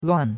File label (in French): "luan4", chinois; Cours-de-Chinois